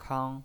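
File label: "kang", first tone, pinyin voice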